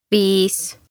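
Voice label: "Viis", Estonian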